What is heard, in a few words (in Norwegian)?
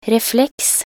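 "refleks", Norwegian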